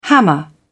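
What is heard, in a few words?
"hammer", British English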